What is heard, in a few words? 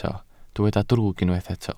"drwg", Welsh